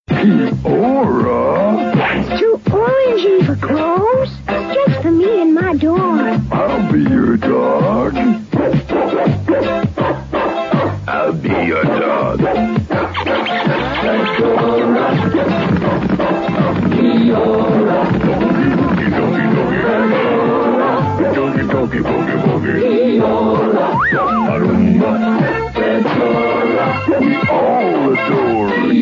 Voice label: Advert; Kia Ora